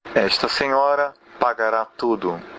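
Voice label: pay, Brazilian Portuguese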